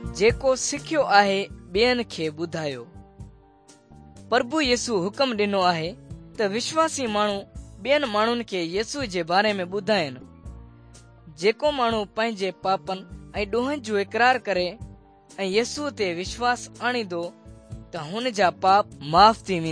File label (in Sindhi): good news sindhi dukslinu, drama; sindhi pakistan nt drama